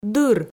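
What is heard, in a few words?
"дыр", Komi, female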